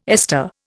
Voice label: "ester", Indian

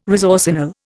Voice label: "resorcinol", Indian

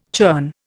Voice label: "churn", Indian

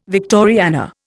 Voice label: "Victoriana", Indian

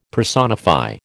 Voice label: "personify", American

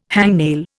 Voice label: "hangnail", Indian

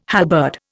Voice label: "halberd", Indian

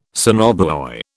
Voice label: "sonobuoy", British

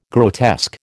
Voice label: "grotesque", American

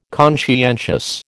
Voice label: "conscientious", American

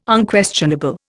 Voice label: "Unquestionable", Indian

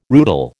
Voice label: "rootle", American

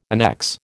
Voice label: "annexe", American

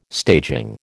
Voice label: "staging", American

